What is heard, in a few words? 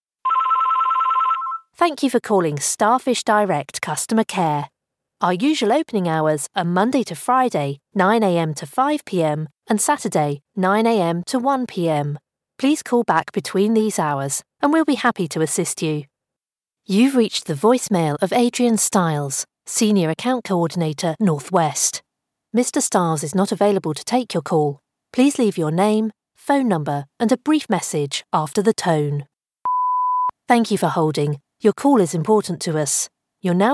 telephone